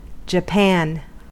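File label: "japan", US English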